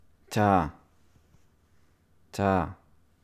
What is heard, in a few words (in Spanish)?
자 pronunciacion